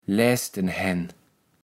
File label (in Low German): "läsdenhän", kaevels platt